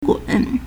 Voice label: "gun3", Chinese